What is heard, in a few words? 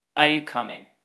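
Voice Acting, Spanish, male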